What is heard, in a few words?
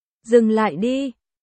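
Vietnamese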